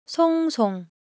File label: Korean, Ideo Phones; Motion